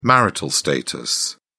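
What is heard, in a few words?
"marital status", English, British English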